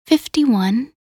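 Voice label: "51", English; minute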